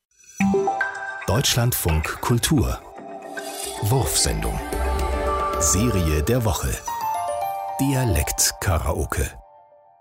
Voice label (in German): dialektkaraoke amerikanisch